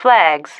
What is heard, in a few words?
"flags", female